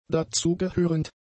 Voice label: "dazugehörend", German; adjectives